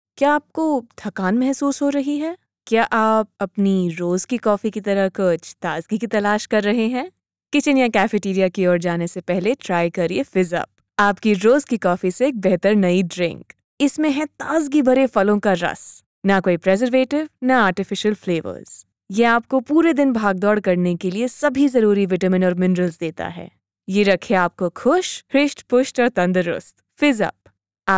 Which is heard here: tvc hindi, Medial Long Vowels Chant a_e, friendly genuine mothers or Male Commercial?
tvc hindi